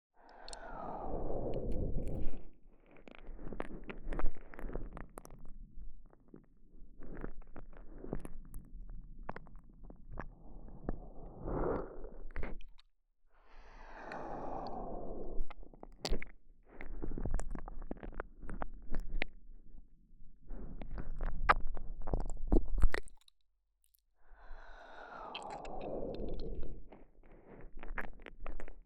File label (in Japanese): ニュル～～ッ, 左耳